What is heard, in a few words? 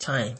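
"time", Nigerian Pidgin, male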